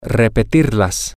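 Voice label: Spanish, to repeat them, male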